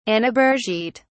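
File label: "annabergite", British English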